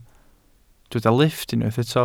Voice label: "lifft", Welsh

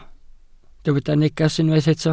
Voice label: "neges", Welsh

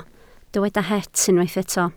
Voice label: "het", Welsh

